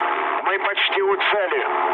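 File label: near waypoint, mood low, Russian; War Thunder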